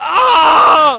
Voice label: fall, negative; Splashdown; XBOX

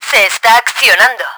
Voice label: power up, Spanish; Battle Engine Aquila; XBOX

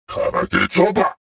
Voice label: suspicious

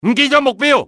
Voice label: target lost, enemy cantonese male; XBOX

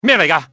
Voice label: what was that, enemy cantonese male; XBOX

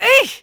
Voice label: ENG; Dialogue; Cars; XBOX

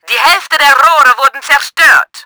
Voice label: HALF TUBE, german; Battle Engine Aquila

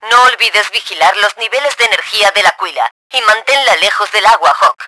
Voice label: reminder, Spanish; Battle Engine Aquila; XBOX

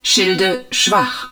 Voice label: HUD SHIELD LOW, german

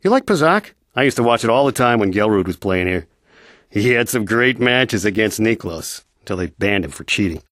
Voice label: male

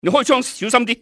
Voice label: watch your fire, cantonese male; XBOX; enemy

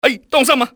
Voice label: watch out, cantonese male; XBOX; enemy

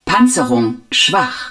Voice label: ARMOUR LOW, german

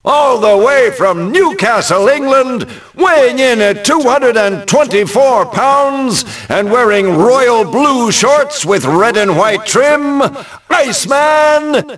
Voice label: announce, ENGLISH; Rocky; XBOX

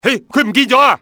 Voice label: lost him, cantonese, male; XBOX; enemy

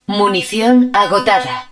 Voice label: Ammunition Depleted, Spanish

